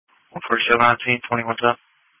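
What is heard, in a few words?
Police Patrol (Dispatch)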